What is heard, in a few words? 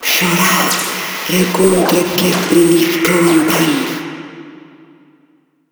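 Intro Speech spanish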